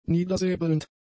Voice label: "niedersäbelnd", German; adjectives

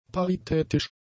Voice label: "paritätisch", German; adjectives

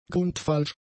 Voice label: "grundfalsch", German; adjectives

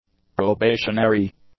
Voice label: "probationary", english; adjectives

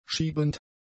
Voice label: "schiebend", German; adjectives

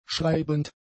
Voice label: "schreibend", German; adjectives

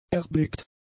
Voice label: "erblickt", German; adjectives

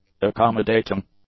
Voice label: "accommodating", english; adjectives

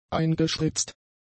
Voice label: "eingespritzt", German; adjectives

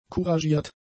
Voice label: "couragiert", German; adjectives

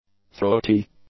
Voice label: "throaty", English; adjectives

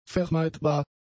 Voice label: "vermeidbar", German; adjectives

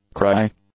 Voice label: "cry", English; nouns

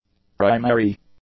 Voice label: "primary", english; adjectives

